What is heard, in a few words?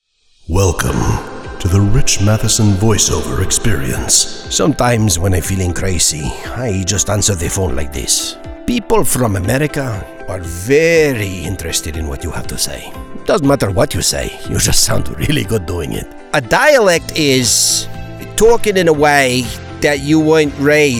Character Demo